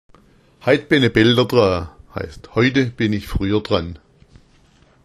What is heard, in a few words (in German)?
"hait be ne blder dra", schwaebisch